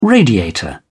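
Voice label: "radiator", British English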